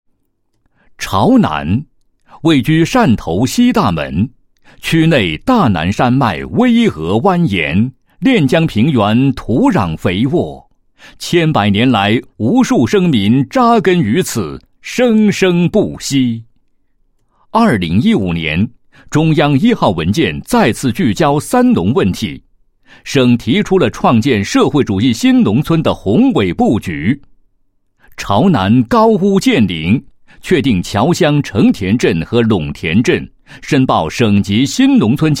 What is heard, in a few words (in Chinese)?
男 纪实; 创建省级新农村建设示范片